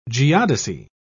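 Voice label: "geodesy", British English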